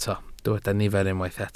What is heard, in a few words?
"nifer", Welsh